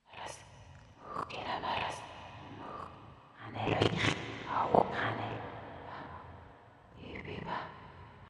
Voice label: whispers